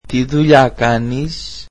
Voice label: greek What do you do for a living